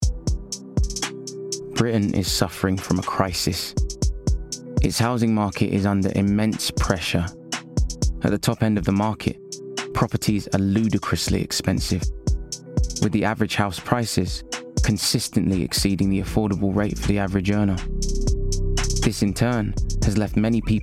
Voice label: Documentary

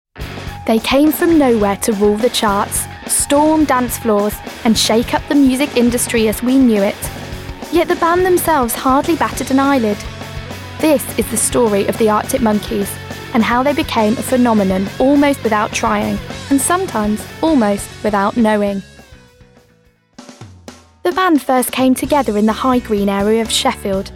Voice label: Documentary

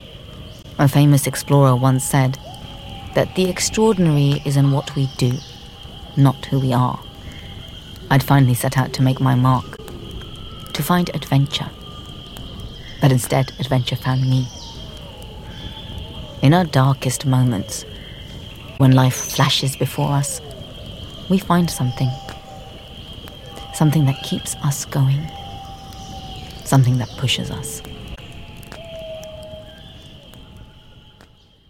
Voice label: Audiobook; Natural Accent: Neutral

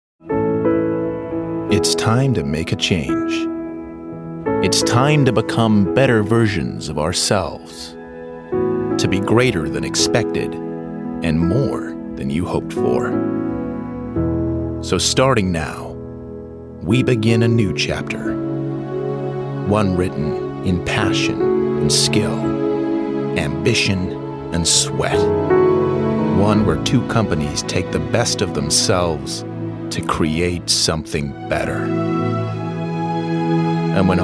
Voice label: Voice American Accent

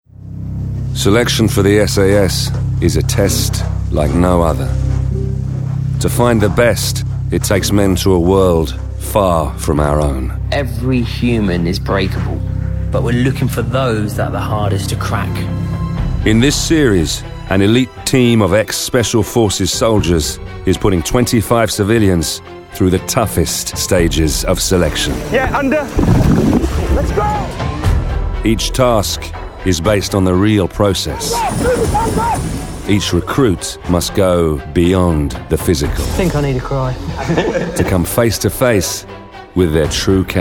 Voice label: narrative montage

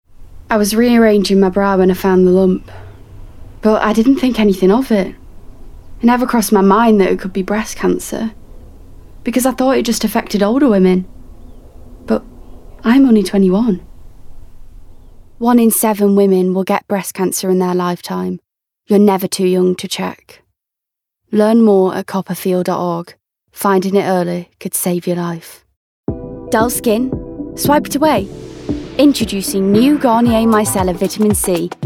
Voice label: Commercials

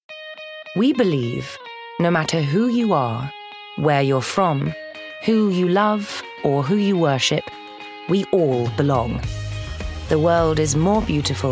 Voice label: Voicetape Commercial; Airbnb

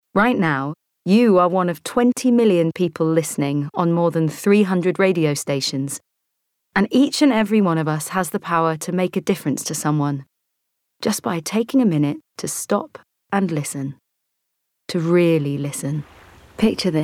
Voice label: Commercial; Natural Accent: RP

